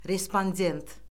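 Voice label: "respondent", Azerbaijani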